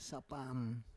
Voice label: "sapam", Roon